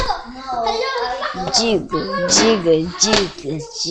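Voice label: "jig", spoken word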